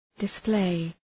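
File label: "display", English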